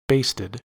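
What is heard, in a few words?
"basted", US English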